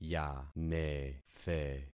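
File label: "yanethe", concatenated syllables; Affixing experiment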